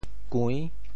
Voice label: "kui~6", Teochew; 潮州; 潮州府城POJ; kŭiⁿ; 调: 弟

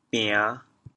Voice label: "bian5", Teochew, chaoyang; 话孬bian5，字孬成，一下bian5哩存无三成; 潮阳

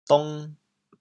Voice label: "dong2", chaoyang

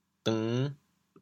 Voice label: "deng5", chaoyang

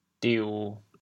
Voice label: "diu1", chaoyang

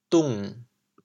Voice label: "dung3", chaoyang

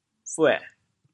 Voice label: "buê3", Teochew, chaoyang; 背

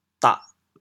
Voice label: "dah4", chaoyang

